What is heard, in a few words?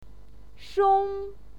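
"shong4", Mandarin Chinese pinyin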